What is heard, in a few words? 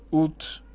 "uut", Armenian